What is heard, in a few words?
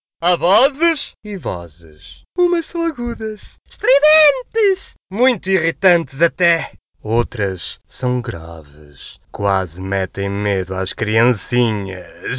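Characters Portuguese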